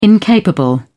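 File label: "incapable", British English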